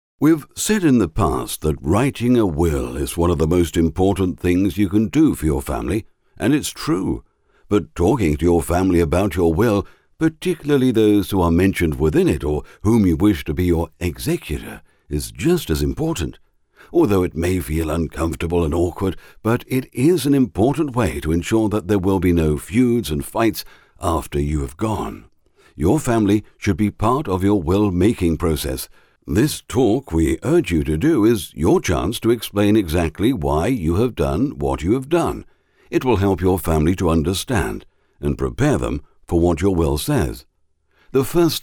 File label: 50s senior natural freindly voice